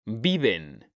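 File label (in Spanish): "viven", Spanish, male; mekanta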